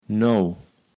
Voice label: "nauw", kaevels platt

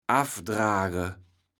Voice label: "affdrage", kaevels platt

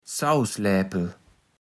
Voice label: "Ssaußläpel", platt

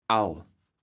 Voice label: "all", kaevels platt

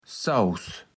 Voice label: "Ssauß", platt

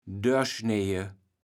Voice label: "dörschneje", kaevels platt

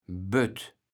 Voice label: "Bött", kaevels platt

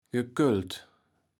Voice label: "gekölt", kaevels platt

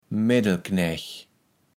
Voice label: "Meddelknäch", kaevels platt

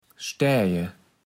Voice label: "Staeje", platt

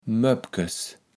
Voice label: "Möppkes", kaevels platt

